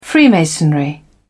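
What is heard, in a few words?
"freemasonry", British English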